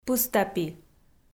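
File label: "пыстапи", Komi, female; kpv audio dict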